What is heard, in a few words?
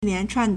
"lian2chuan4", Chinese